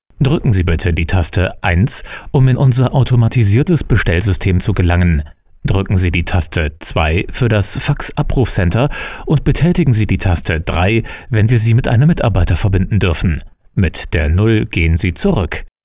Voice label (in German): telefon